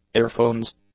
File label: "earphones", English